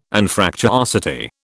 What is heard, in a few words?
"Anfractuosity", British